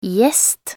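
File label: "gjest", Norwegian Bokmål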